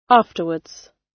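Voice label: "afterwards", British English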